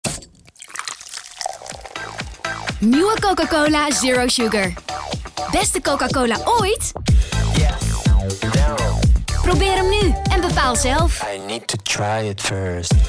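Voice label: Dutch voice reel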